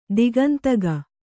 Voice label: "ದಿಗಂತಗ", kannada